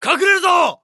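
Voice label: Japanese Neutral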